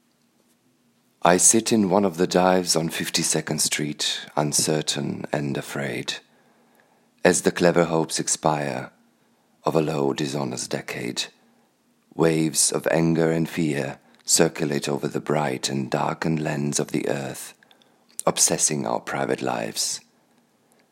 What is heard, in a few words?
British English